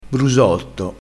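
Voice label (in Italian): "brusotto", vocabolario dialettale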